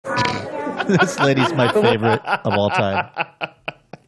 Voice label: snorting laughing this lady is my favorite of all time